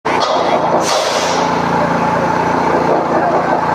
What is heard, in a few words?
voice message